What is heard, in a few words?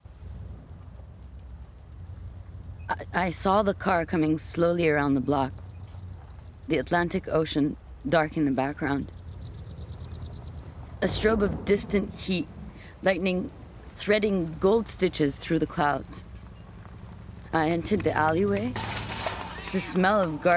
Narrative